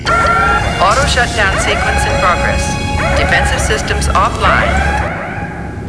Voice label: Computer Autoshutdown sequence in progress. Defensive; startrek